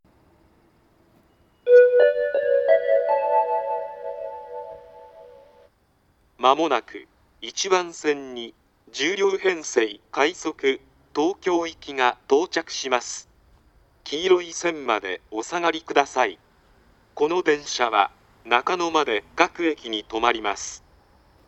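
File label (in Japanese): 接近放送, 旭型放送(男声), 青梅線, 停車駅案内が流れます。; 平日快速東京行; 牛浜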